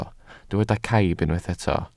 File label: "caib", Welsh